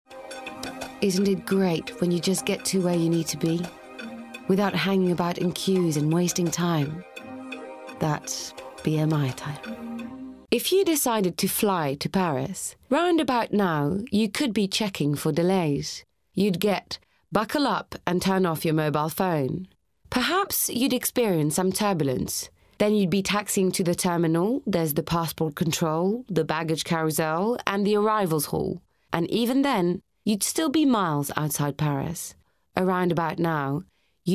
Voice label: Commercial Reel